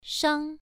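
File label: "shang", Mandarin Chinese syllable, first tone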